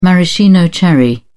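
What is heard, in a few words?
"maraschino cherry", English, British English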